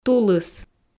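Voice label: "тулыс", Komi, female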